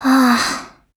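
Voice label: LOW MOOD, Japanese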